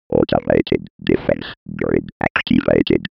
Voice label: Science fiction computer voice; may tinh xach tay